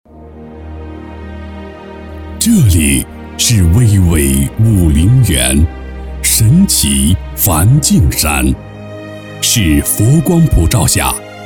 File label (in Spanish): Locutor varón chino